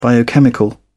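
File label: "biochemical", English, uk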